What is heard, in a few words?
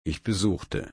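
"besuchen", German, slow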